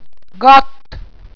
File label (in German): "gott", walser